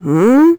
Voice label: confused; Crypt of the NecroDancer